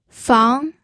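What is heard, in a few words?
"fang", pinyin, second tone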